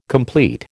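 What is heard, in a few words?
"complete", American English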